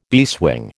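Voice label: "beeswing", American English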